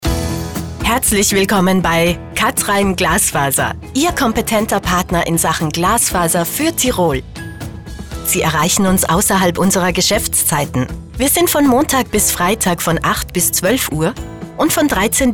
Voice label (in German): Nachtansage; Kathrein Glasfaser; telefonansagen sonstige